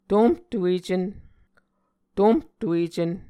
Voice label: "tomhtuecen", Muscogee